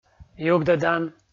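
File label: "югдӧдан", Komi, male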